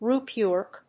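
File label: "ropework", English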